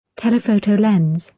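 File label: "telephoto lens", British English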